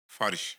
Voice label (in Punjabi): "farash", Punjabi